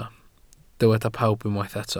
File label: "pawb", Welsh